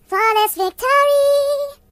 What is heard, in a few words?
"Flawless", voices, Singer; HedgewarsMobile